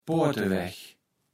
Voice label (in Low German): "Poortewaegg", Platt